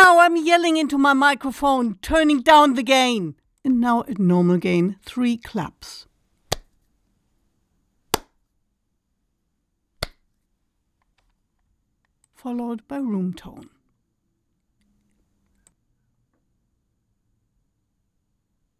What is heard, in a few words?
Raw Booth Sample